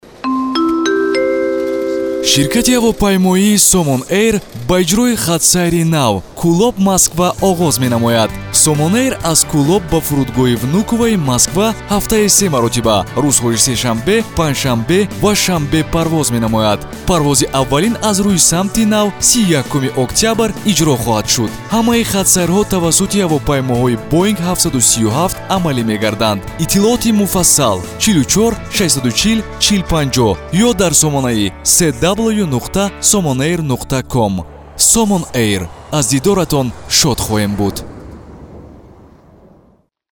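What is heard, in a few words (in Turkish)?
Tacikce Erkek, Tajik male voice; tacikce seslendirme; erkek sesler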